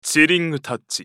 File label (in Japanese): ウォーロック男; チリングタッチ